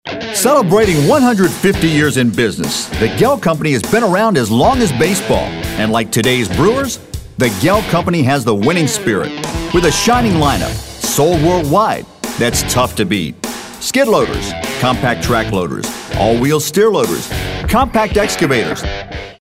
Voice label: upbeat, natural spokesperson with confidence, clarity, and class